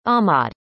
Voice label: "ahmad", arabic; indonesian boy names

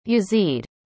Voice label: "Yazeed", arabic; indonesian boy names

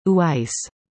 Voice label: "Uwais", english; indonesian boy names

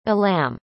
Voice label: "Alam", arabic; indonesian boy names

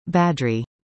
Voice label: "Badri", english; indonesian boy names

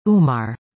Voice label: "Umar", english; indonesian boy names

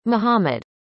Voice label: "Muhammad", arabic; indonesian boy names

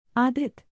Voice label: "Adit", hindi; indonesian boy names

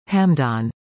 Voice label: "Hamdaan", english; indonesian boy names

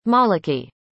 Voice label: "Maliki", arabic; indonesian boy names

